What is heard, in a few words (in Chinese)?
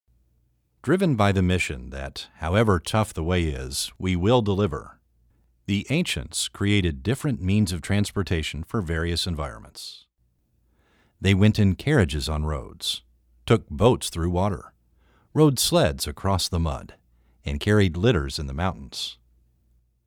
全球智能物流技术配音; 顺丰科技